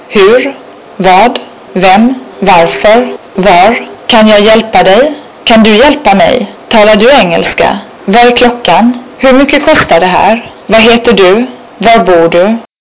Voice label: Swedish phrases